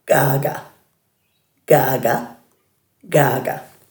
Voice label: "gaaga", Dhurga

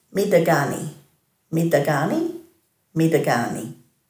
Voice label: "midhagani", Dhurga

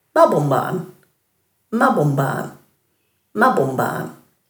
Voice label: "mabumban", Dhurga

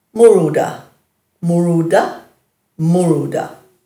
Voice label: "muruda", Dhurga

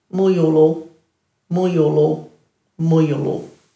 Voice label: "muyulu", Dhurga

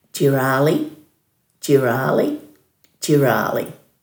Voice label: "djiraali", Dhurga

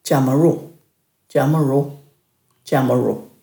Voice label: "djamaru", Dhurga

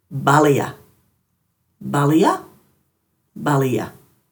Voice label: "baliya", Dhurga

